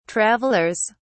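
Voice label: "travelers", British English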